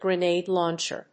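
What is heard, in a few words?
"grenade launcher", English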